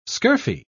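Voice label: "scurfy", English, British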